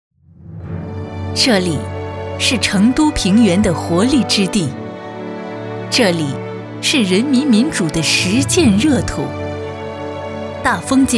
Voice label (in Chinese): 女 专题; 践行人民民主